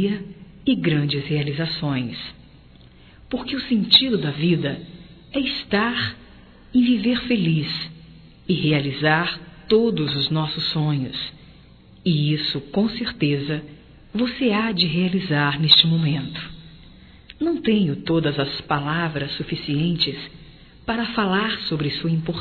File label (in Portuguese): Aniversário atrasado desculpas, Telemensagens; Aniversarios; Telemensagens 2017